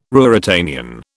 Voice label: "Ruritanian", British

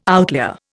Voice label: "outlier", Indian

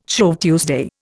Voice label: "Shrove Tuesday", Indian

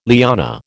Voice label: "liana", American

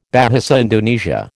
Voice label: "Bahasa Indonesia", American

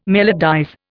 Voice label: "melodize", Indian

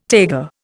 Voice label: "taiga", Indian

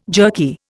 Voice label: "jerky", Indian

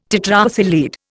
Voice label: "tetraethyl lead", Indian

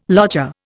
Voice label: "lodger", Indian

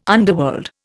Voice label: "underworld", Indian

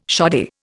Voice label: "shoddy", Indian

